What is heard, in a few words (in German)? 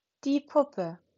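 "puppe", German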